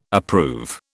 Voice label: "approve", British